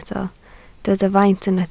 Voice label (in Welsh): "faint", Welsh